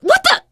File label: friendly fire, teen girl voice; survivor voice